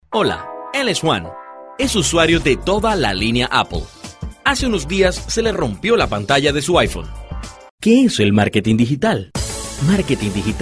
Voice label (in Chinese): 西班牙语男 宣传片合辑